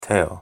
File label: "teo" Esperanto; thee-in-andere-talen